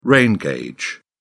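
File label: "rain gauge", British English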